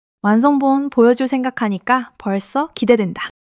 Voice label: excitement